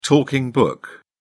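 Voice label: "talking book", British English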